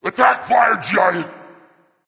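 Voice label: attack female berserk; smite; cuchulainn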